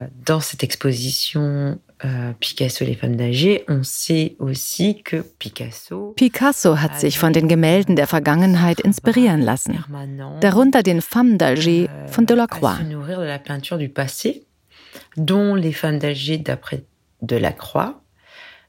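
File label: Voice-over; Picasso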